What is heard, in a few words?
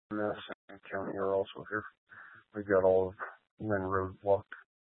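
Dispatch, Scanner audio; Troop 2D Dispatch (Huerfano, Pueblo, W. Las Animas)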